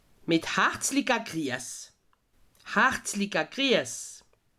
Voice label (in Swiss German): "harzliga grias", alsace